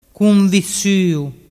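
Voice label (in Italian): "cunvissuu", Vernazzese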